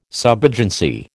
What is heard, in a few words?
"subagency", American